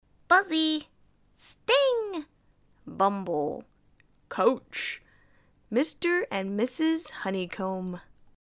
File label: foreigner